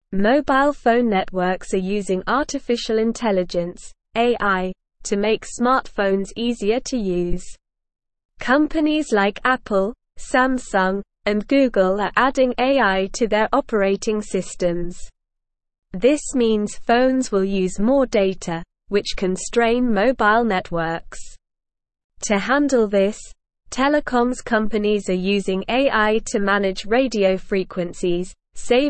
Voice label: English SLOW Reading; AI Revolutionizes Mobile Networks and Customer Serv